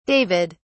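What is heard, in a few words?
"david", English, British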